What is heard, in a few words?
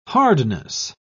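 "hardness", British English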